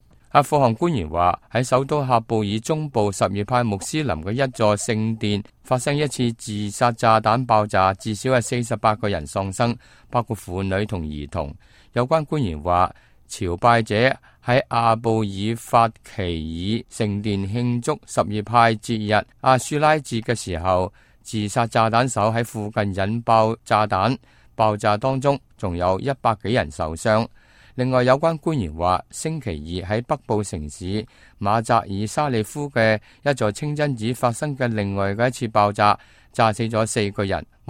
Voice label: cantonese, news